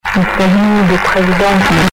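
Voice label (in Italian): "e già rido", Italian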